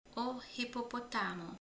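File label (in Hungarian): "viziló", Hungarian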